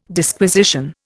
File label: "Disquisition", Indian